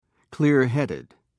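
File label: "clearheaded", English, uk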